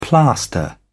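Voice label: "plaster", British English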